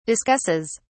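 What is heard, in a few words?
"discusses", British English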